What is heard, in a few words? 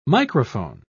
"microphone", pronounce